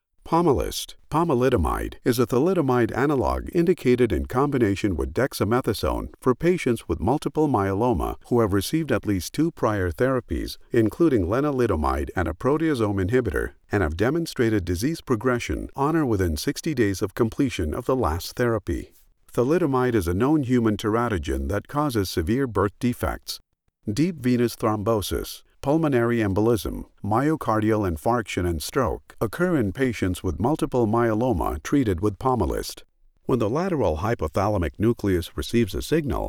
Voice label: Medical Narration